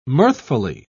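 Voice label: "mirthfully", British English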